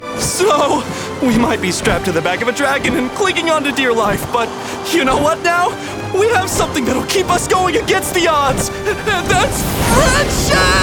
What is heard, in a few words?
Animation